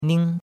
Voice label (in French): "ning1", chinois; Cours-de-Chinois